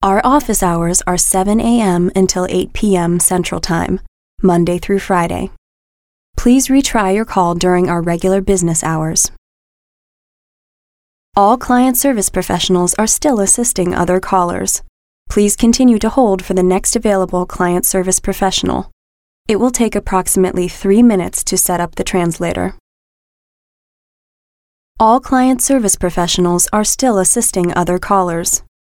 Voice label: US English IVR, Female